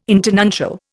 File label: "internuncial", Indian